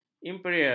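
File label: "imperial", Malay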